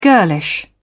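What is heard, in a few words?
"girlish", English, British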